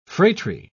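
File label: "phratry", English, British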